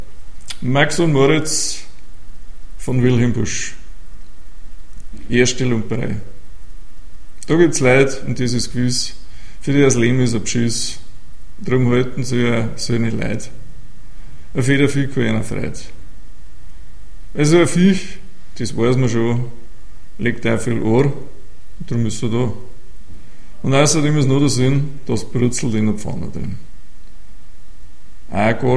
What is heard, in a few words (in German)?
dialekte Bairisch